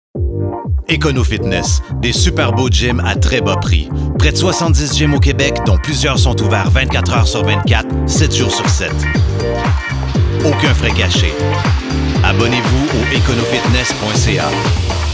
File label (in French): Français québécois familier Voix Affirmée Déterminée; Econofitness